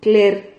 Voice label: "cler", Silabas

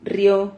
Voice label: "rrio", silabas

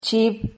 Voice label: "chib", Silabas

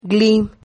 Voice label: "gli", Silabas

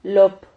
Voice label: "lop", Silabas

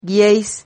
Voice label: "guieis", Silabas

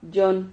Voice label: "llon", Silabas

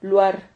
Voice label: "luar", Silabas

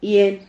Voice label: "hien", Silabas

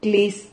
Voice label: "clis", Silabas

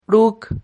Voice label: "ruc", silabas

